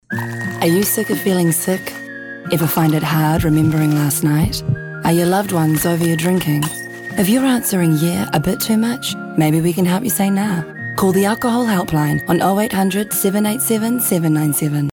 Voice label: accented english female